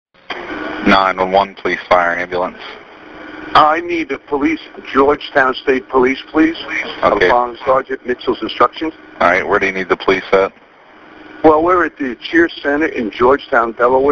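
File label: 911 call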